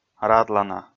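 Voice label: "радлана", Komi, male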